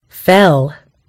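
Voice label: "fell", American English; gcompris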